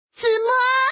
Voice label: "zimo", woman, mahjong; ningxia